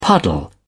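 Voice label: "puddle", British English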